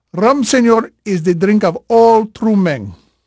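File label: Spanish male, voice; Pirates Of The Caribbean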